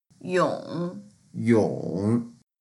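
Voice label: "yong3", Mandarin Chinese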